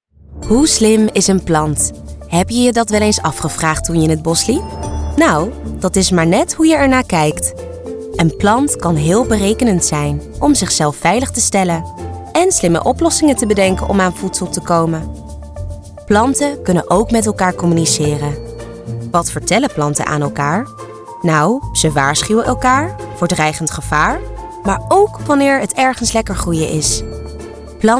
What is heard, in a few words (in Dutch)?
NL; Artis Groote Museum; Music demo